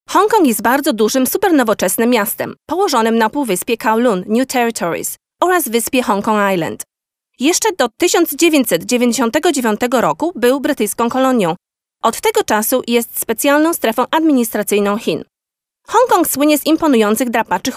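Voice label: Polish female corporate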